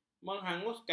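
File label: "menghanguskan", Malay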